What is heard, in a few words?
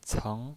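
"ceng", Mandarin Chinese, second tone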